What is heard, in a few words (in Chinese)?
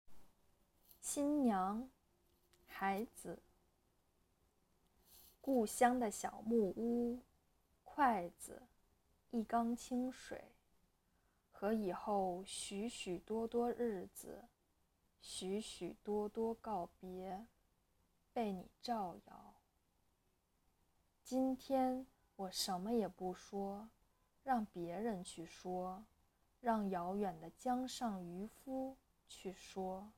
诗朗读; 海子; 新娘